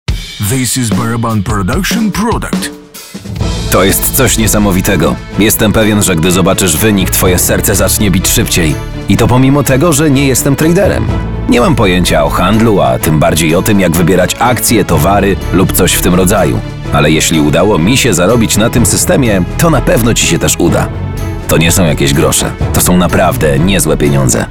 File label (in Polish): Polish Language; Bitcoin Billionaire